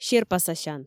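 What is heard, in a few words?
"серпасасян", Komi, female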